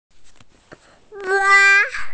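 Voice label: "hua1", Mandarin Chinese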